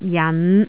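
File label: "jan5", Cantonese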